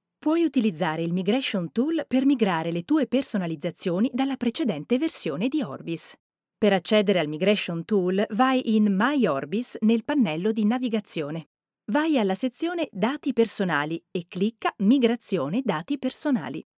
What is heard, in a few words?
italian e-learning